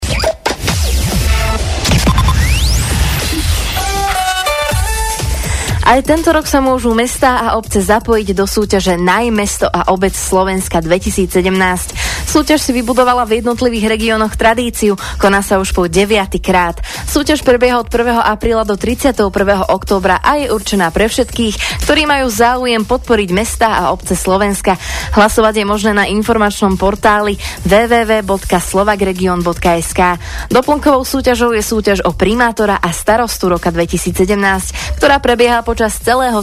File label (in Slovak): radio reklama